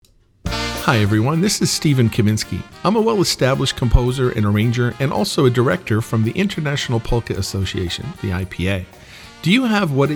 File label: Radio Promo; IPA YCC 2020